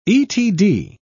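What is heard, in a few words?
"etd", British English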